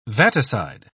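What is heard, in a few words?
"vaticide", British English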